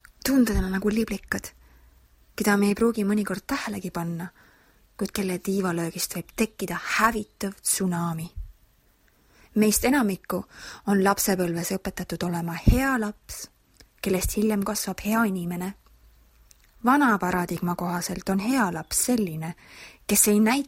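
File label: ET General, Estonian; GoLocalise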